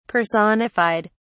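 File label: "personified", British English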